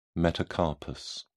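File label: "metacarpus", English, British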